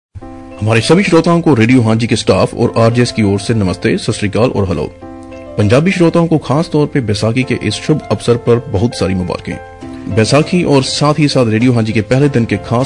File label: Radio schedule Hindi; Radio Haanji